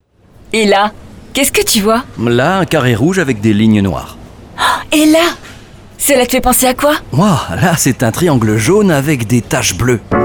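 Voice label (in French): voice over, pub radio; Radio Picinelli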